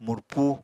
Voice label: "murpu", Apatani